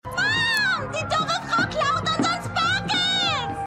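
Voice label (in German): Mädchen, Stimmproben; Shameless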